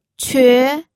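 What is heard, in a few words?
"que", Mandarin Chinese, second tone; pinyin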